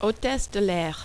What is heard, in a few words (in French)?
hotesse de l air, French